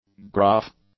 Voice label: "gruff", english; adjectives

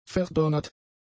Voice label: "verdonnert", German; adjectives

